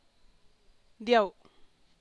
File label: "Dyau", San Lucas Quiaviní Zapotec; Dyau[[Dyàu’]]; Santiago; (Spanish) Santiago